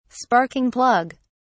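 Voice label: "sparking plug", British English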